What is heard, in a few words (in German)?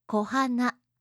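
"kohana", japanische Mädchennamen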